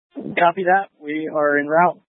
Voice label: Incident Tac 1, scanner

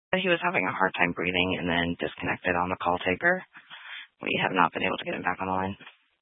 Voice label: Grand Junction Fire Department Dispatch

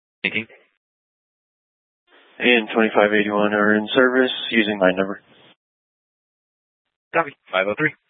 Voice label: Mesa County Sheriff Dispatch

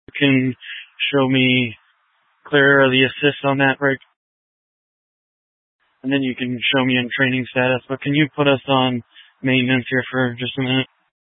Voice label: Sheriff Dispatch; Mesa County

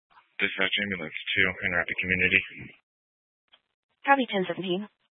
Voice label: Fire Dispatch, scanner

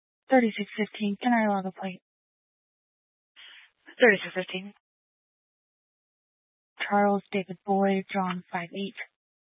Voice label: Police Department Dispatch; Grand Junction

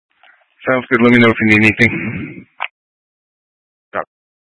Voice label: Tactical Incidents, scanner; Grand Junction Tactical 1

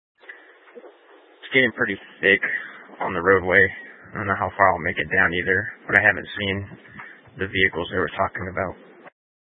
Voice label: Sheriff Dispatch; Mesa County

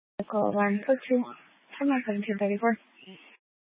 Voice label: Grand Junction Fire Department Dispatch

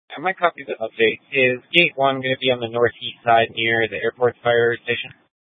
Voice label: Police Department Dispatch; Grand Junction

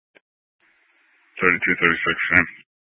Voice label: Police Dispatch, scanner; Police 1 Dispatch

